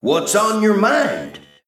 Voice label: Male Mech Idle